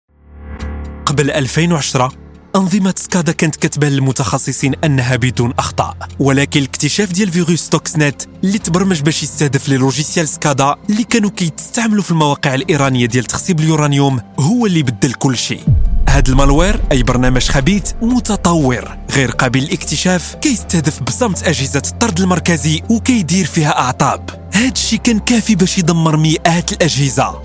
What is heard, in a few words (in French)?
documentaire